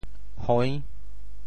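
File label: "hoi~6", Teochew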